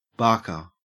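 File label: "barker", Australian English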